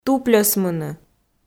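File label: "тупльӧсмыны", Komi, female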